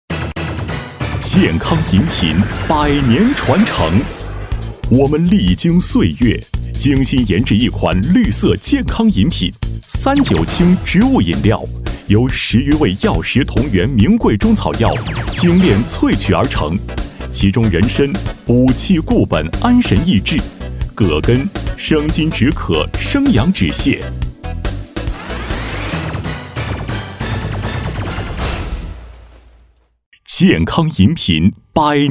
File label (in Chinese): 男 广告 激情 植物饮料广告